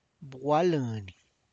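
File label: tlacochahuaya, Zapotec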